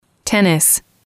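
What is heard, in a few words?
"tennis", English, female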